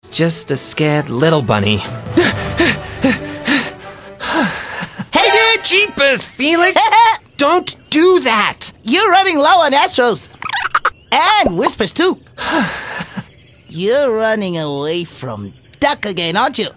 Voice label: Character; Felix